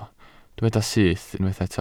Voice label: "syth", Welsh